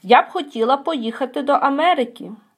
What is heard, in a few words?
dialogue, Ukrainian